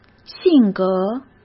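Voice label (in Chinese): "性格", Mandarin Chinese; hsk; chinese-flashcards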